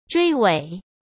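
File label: "追尾", Mandarin Chinese; chinese flashcards; hsk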